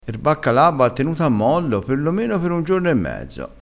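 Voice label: "a mollo", Italian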